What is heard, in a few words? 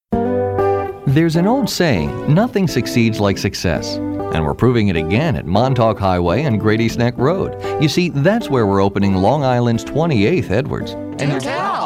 Commercial short